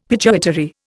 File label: "pituitary", Indian; Dictionary